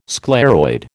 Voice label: "scleroid", American